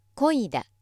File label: female